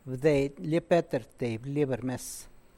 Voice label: Petra gave a book to the teacher, San Lucas Quiaviní Zapotec